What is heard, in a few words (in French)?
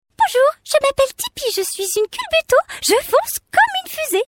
voix animation; tipi